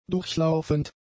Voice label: "durchlaufend", german; adjectives